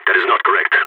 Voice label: disagree, balkan; vo

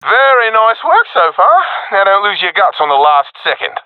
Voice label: round winning, professional epic

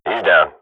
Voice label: radio bot kill, swat; Counter Strike Model Pack; CS:GO

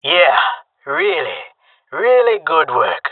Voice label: round won, jungle male; agents

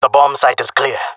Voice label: bombsite clear, jungle male; vo; agents; Source Model Packs; Counter Strike Model Pack; CS2

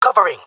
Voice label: covering friend, jungle male; agents

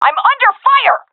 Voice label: taking fire, vo fem; agents; Source Model Packs; Counter Strike Model Pack; CS2

